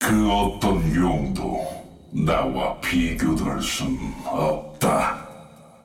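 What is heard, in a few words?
move 2d first, Korean; aurelionsol; tftset16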